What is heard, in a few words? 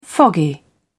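"foggy", British English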